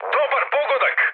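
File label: Serbian bomb success mood high, speech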